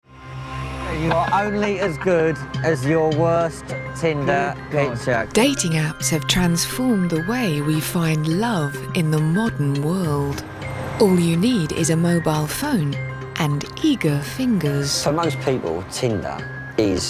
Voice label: Documentary

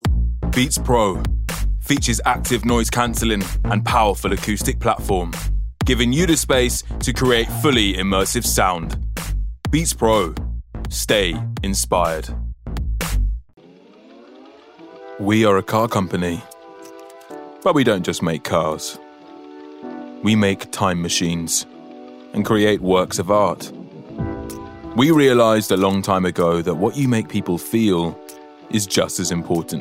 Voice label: Commercial

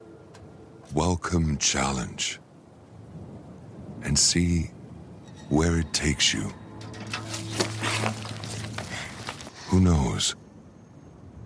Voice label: Commercial

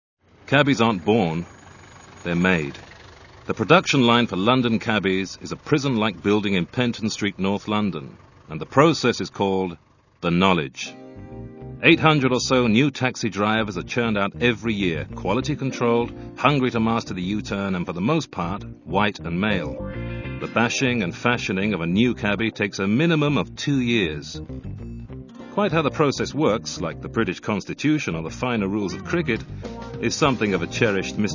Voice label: narration

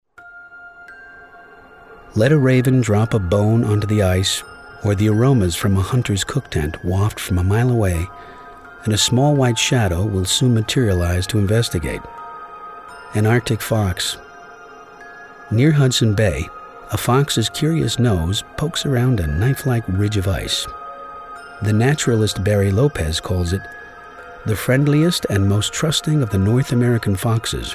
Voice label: Documentary

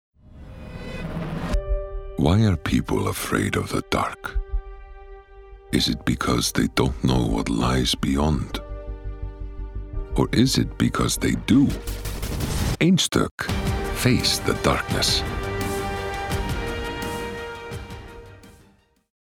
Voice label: Voicetape Commercial Icelandic Accent; Einstok

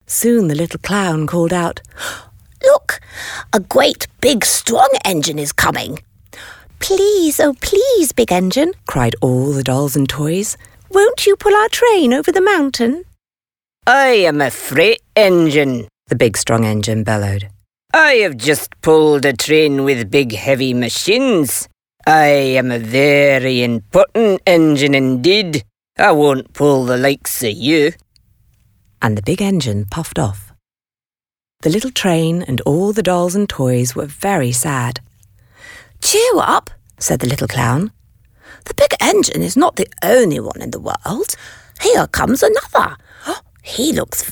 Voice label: Book Read

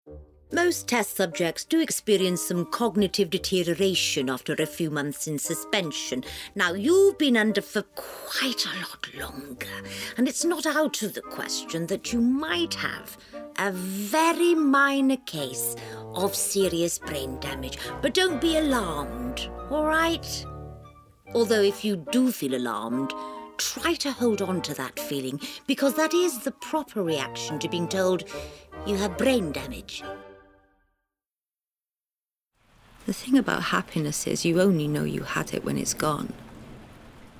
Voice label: Character

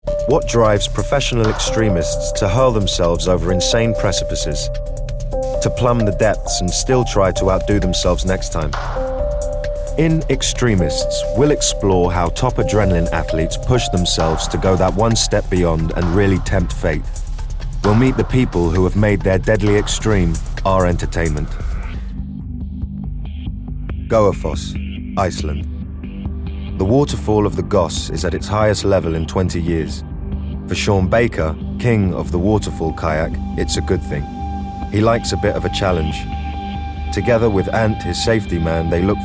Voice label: Documentary

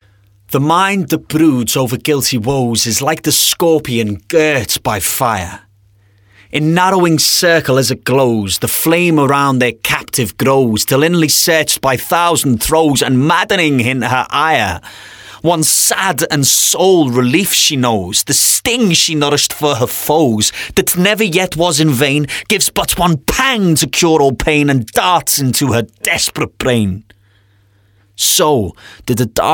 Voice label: narration

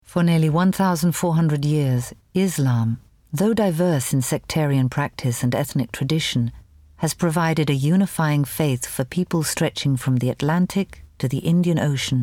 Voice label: Documentary; Natural Accent: RP

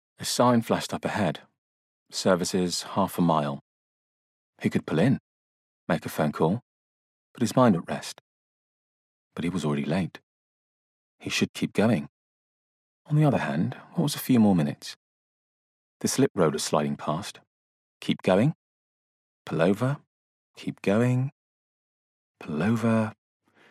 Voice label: Narration

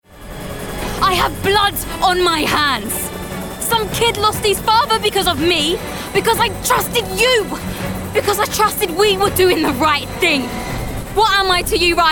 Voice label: Character (Gaming)

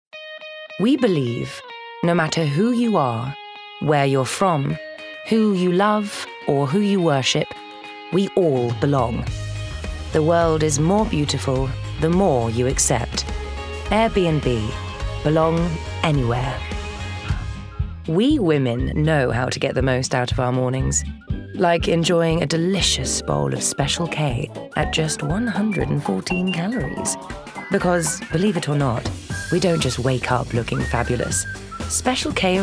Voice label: commercial reel

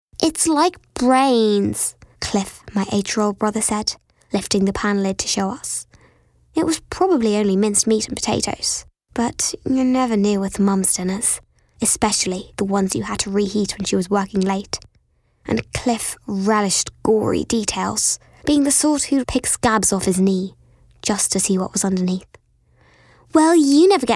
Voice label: narrative reel; Natural Accent: Irish

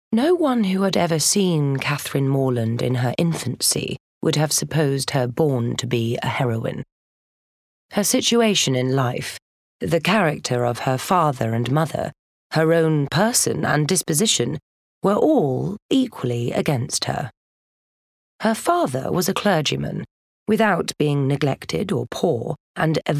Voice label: narrative reel

